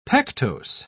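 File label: "pectose", English, British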